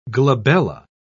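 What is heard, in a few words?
"glabella", English, British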